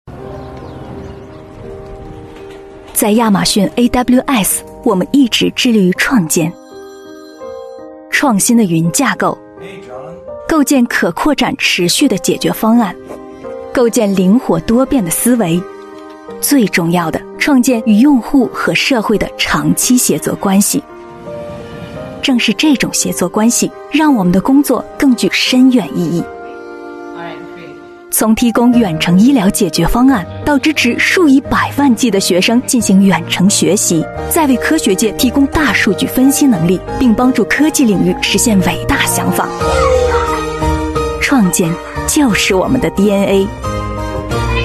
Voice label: Mandarin China Commercial

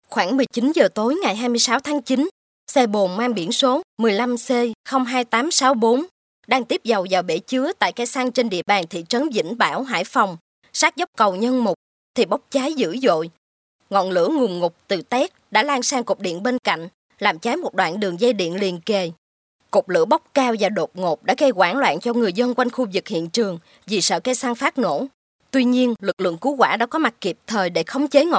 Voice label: Vietnamese Female South

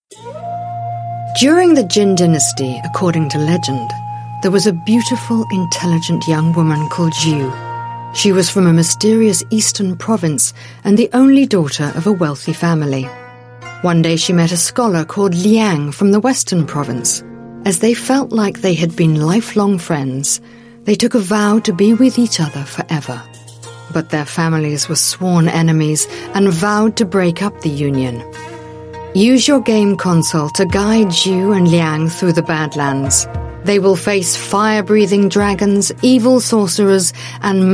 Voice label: UK English Videogame

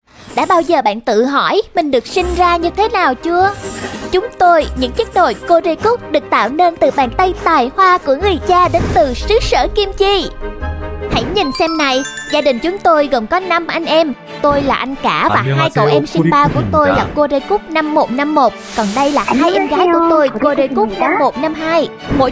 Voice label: South TVC Female, Vietnamese; Corecook

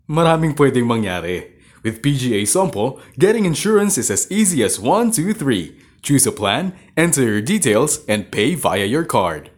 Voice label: Male Tagalog Voice Sample

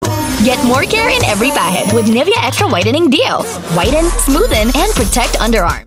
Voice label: Filipino TVC; Nivea

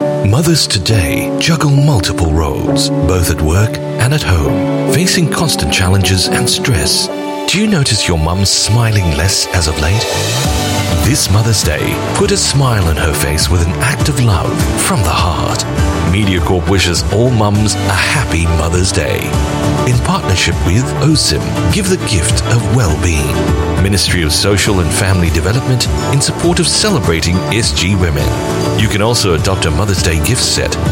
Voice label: Asian English ANNOUNCER; ILUM

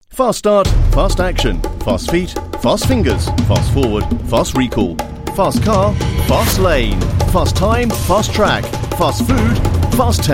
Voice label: UK English; CIMB Fastsaver

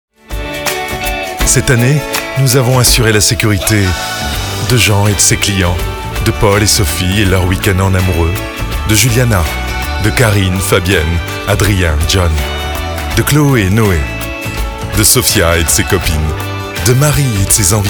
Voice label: French CORPORATE

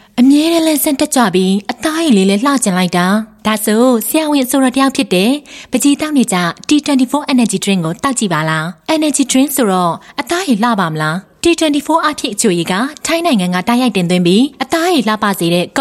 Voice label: Burmese Voice Sample Female

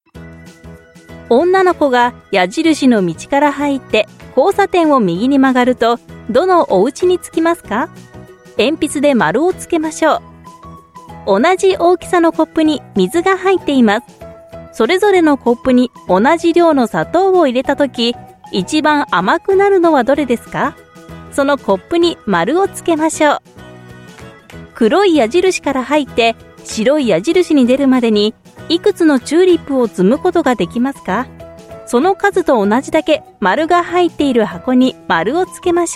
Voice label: Japanese E-Learning Kids, Female

